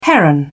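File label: "heron", British English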